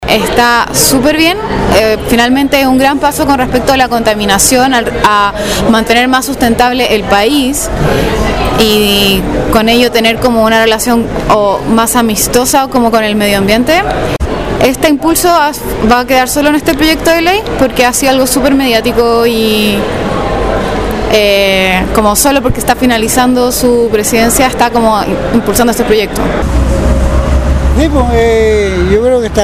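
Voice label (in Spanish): Vox Populi, cuña; proyecto de ley contra el uso de bolsas plasticas en la zona costera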